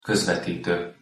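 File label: "kozvetito", Hungarian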